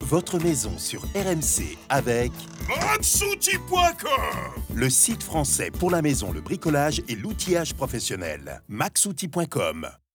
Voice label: VOCAL WITH EFFECT; MAISON; NextRegie